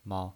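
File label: "mao", pinyin voice, Mandarin Chinese, neutral tone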